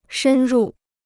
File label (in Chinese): "深入", Chinese